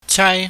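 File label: "chaai", Cantonese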